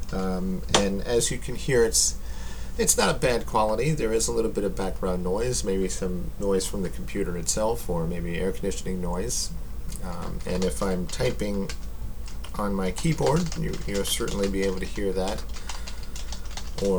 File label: Microphone on Camera